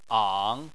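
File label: "ang" Chinese, third tone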